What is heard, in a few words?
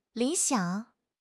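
"li3 xiang3", Mandarin Chinese; HSK4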